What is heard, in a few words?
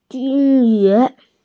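"jin1yu2", Mandarin Chinese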